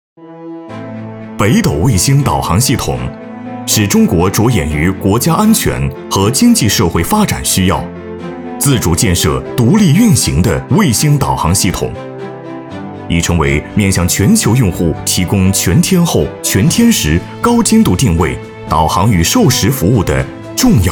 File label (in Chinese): 男 专题宣传 大气力度; 北斗导航